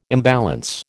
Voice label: "imbalance", American